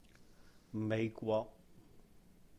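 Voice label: "美國", Cantonese; The US; Méih-Gwok; mei5 gwok3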